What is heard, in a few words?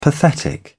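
"pathetic", British English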